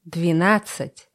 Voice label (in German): russische zahl 20; russische zahl 12; Zahlen auf Russisch